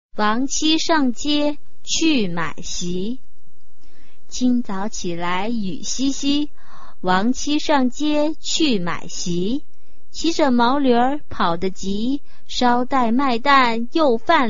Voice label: chinese tongue twister